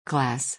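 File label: "Glass", English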